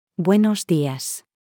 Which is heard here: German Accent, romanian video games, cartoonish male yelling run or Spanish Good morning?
Spanish Good morning